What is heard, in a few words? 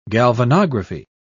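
"galvanography", English, British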